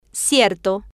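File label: "cierto", Spanish, female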